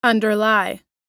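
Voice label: "underlie", English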